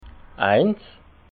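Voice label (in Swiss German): "eins", Alsatian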